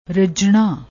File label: "rajjana", Punjabi